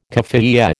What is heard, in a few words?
"kaffiyeh", American